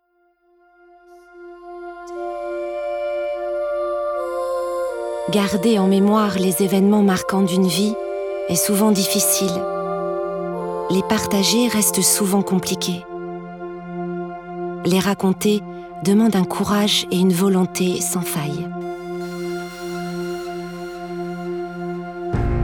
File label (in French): voix off doc